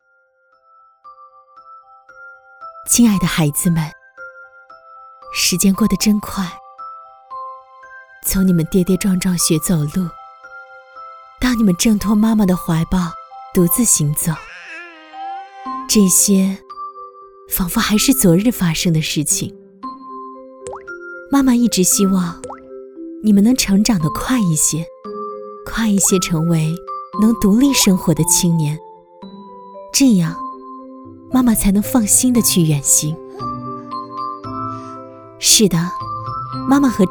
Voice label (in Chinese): 温柔母性; 亲爱的孩子